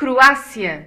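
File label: "croatia", Portuguese; gcompris; geography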